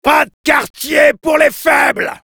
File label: battlecry, fr; Soldier